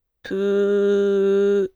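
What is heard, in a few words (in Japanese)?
"ぷ", Japanese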